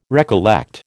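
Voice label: "recollect", American English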